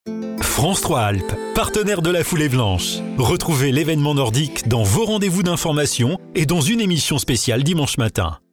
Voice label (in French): TV voix off